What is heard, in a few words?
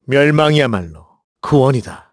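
Vox Victory, Korean; voices; heroes; KaselB; kingsraid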